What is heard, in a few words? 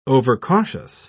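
"overcautious", British English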